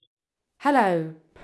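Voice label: Voice Acting Spanish Female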